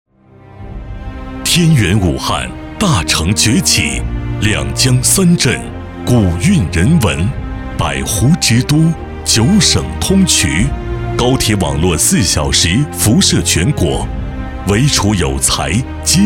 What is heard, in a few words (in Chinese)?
男, 宣传配音; 城市中心科技产业园设计专家